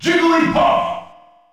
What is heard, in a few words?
English Announcer; Jigglypuff; SSB